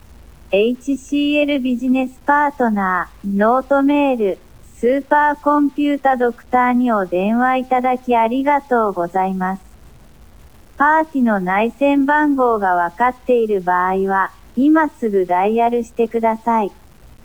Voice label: Main Menu Japanese; NotesMail